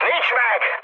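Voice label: Hungarian bomb failure mood high, speech; War Thunder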